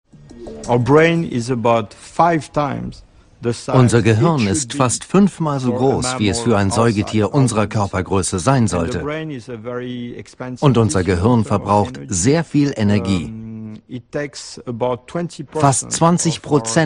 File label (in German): Sprecher voiceover; Gut Besser ARTE